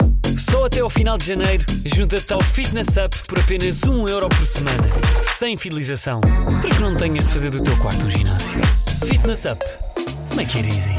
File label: COMMERCIALS